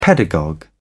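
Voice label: "pedagogue", English, British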